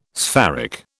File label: "spheric", British